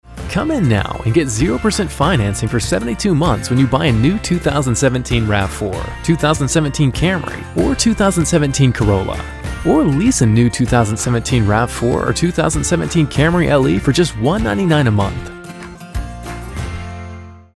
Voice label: Pre Roll